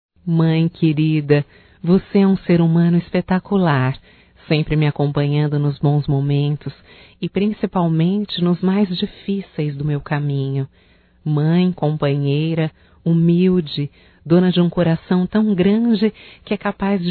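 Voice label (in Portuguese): Agradecimento para mae female, Só Voz; Telemensagens; AGRADECIMENTOS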